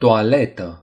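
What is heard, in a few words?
"toilet", Romanian; gcompris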